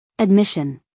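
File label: "admission", American English, female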